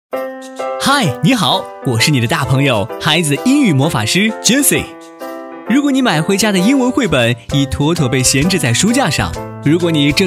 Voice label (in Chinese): 男 活力角色; 英语魔法师